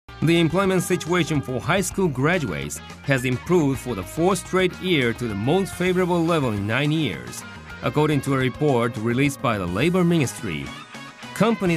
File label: news